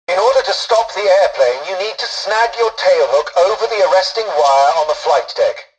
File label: tutorial dialogue, english; War Thunder